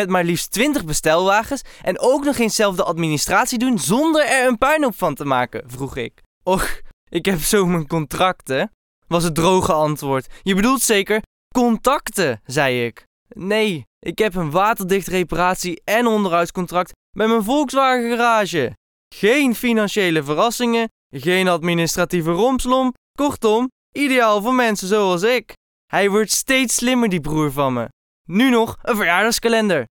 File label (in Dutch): Voice Demo Voice Over